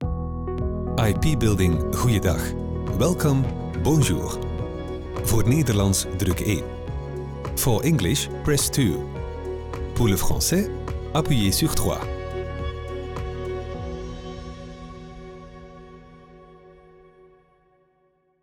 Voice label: vo ivr; ipbuilding